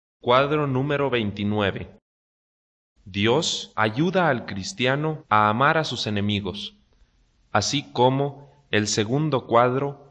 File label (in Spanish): good news spanish mexico